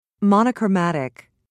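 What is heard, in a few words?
"monochromatic", English, British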